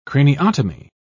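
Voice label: "craniotomy", English, British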